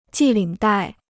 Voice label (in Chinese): "系领带", Chinese